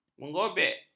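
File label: "menyobek", Malay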